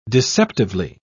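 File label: "deceptively", English, British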